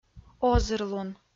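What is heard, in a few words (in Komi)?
"озырлун", Komi, female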